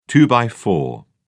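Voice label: "two-by-four", English, British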